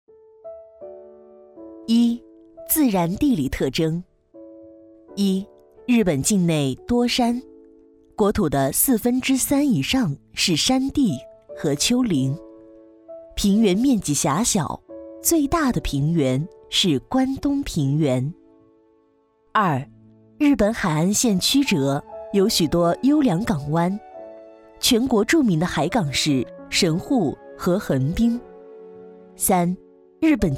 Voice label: 女 课件 日本

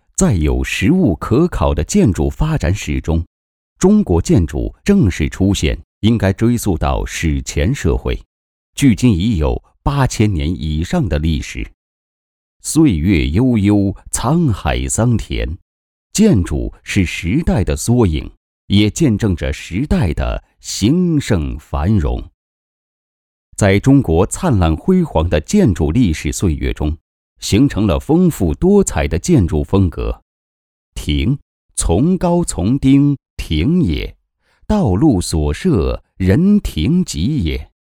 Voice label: 男 专题 历史记录风格 建筑 亭

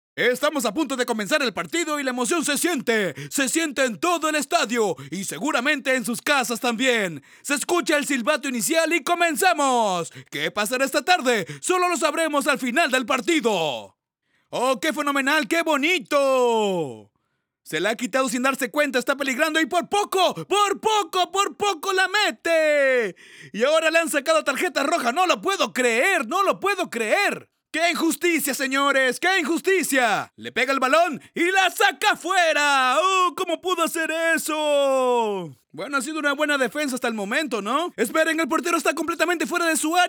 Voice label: 西班牙语男-体育赛事播报

